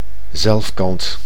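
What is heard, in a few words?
"zelfkant", Dutch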